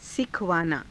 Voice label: "sikhavaanaa", hindi